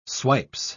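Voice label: "swipes", British English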